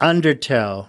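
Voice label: "undertow", US English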